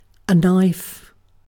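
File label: "knife", British English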